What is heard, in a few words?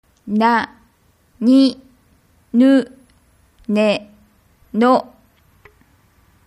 naninuneno, Japanese